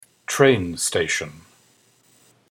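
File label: "train station" English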